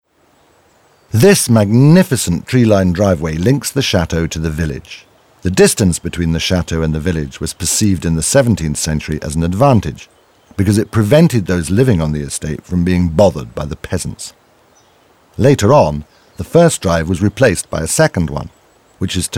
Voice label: audioguide en enfant; modave